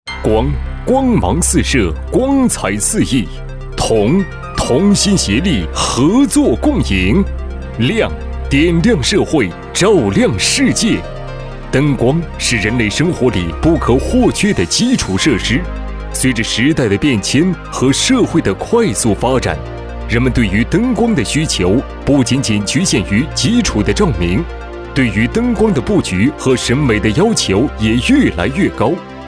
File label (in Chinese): 专题 大气明亮; 光同亮